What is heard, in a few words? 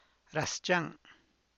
it gets mixed up again of tejate, San Lucas Quiaviní Zapotec; ras zhang; esto se remezcla